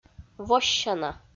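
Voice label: "воссяна", Komi, female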